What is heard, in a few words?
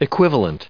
"equivalent", us